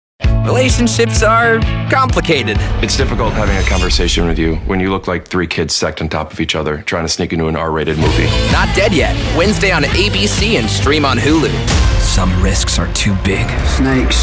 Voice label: promo men